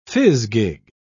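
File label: "fizgig", British English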